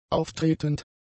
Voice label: "auftretend", German; adjectives